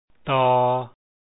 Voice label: t sound, lao alphabet sounds